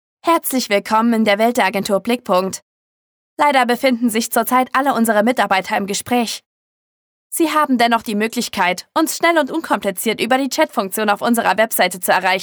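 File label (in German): neue Hoerprobe Anrufbeantworter, Sprecherin; Voice-Over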